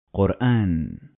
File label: "Quran", Arabic